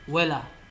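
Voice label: "wella", Nigerian Pidgin, male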